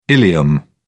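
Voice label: "ileum", English, British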